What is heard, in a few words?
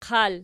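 "qal" nominative, Archi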